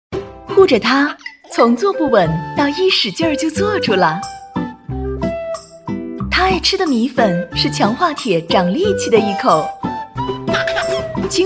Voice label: 广告 奶粉

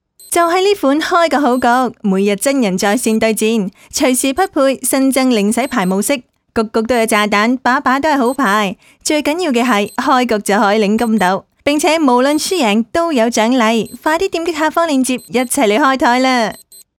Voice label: 粤语女信息流

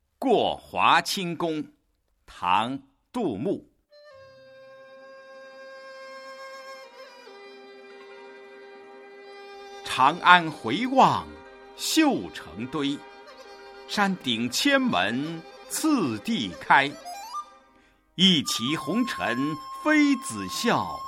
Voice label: 朗诵唐诗过华清宫磁性大气配音

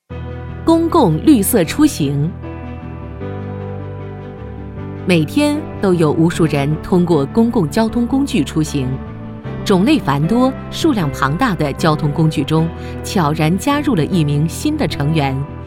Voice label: 产品介绍; 公共绿色出行

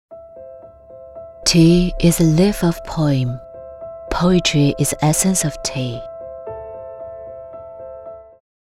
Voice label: 英文质感广告

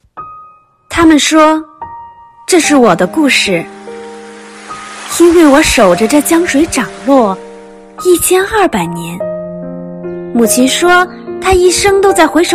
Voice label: 混配女; 王者荣耀

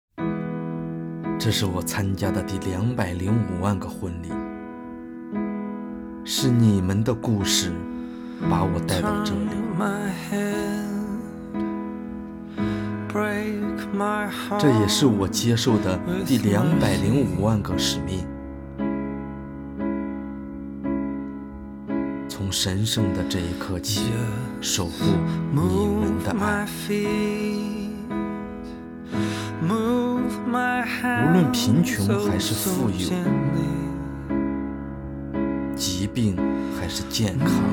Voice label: 广告 誓言

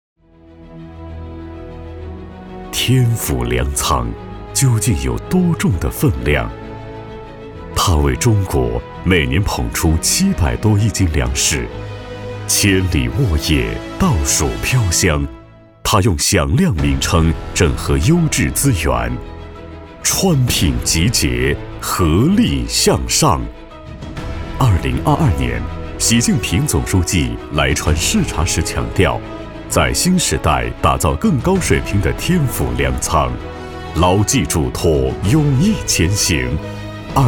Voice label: 开场宣传片; 蜀农一卡通首发仪式开场宣传片

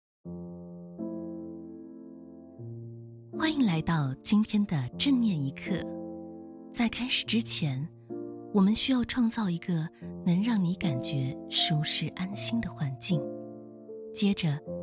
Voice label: 正念催眠抒情温柔

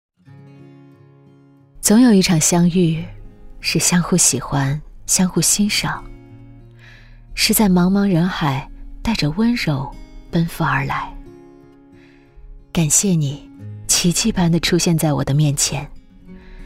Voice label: 旁白微电影旁白

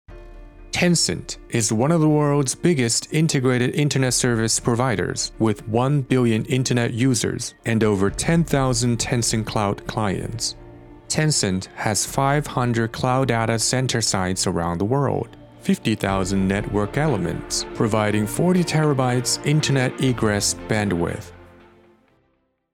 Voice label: 宣传片

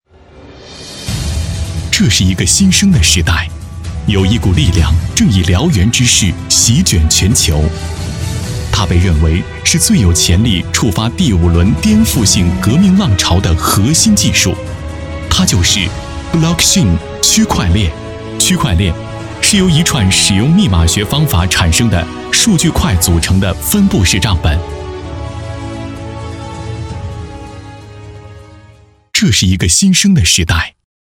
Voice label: 年轻感觉宣传

